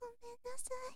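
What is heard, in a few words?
"gomennasai", Japanese, girl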